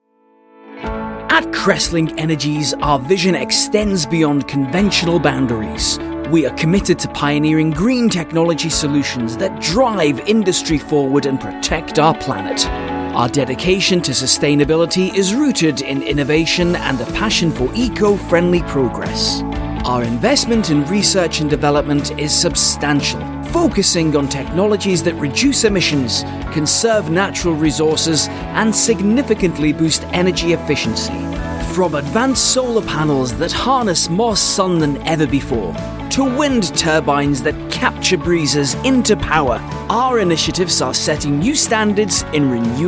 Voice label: corporate